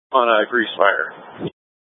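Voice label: Incident Tactical 1, Scanner audio recording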